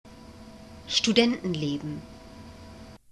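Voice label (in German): "studentenleben", German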